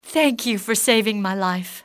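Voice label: thank, english female; XBOX; civ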